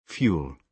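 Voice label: "fuel", British English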